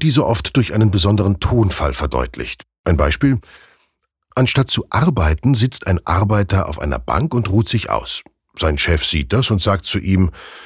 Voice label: Sarkasmus Freundlich

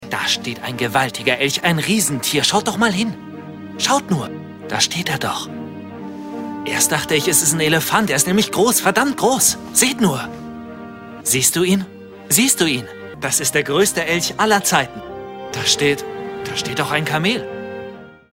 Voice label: Schauspiel Neugierig

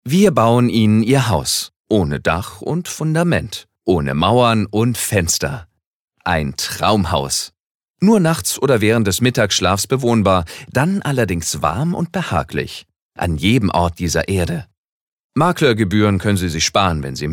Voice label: Werbung Freundlich

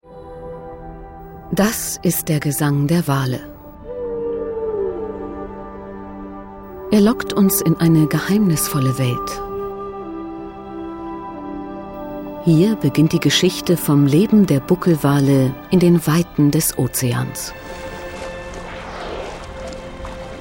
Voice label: Sanft

